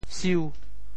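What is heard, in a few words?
"siu5", Teochew; 潮州; 拍bong2泅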